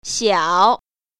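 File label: "xiao3", Mandarin Chinese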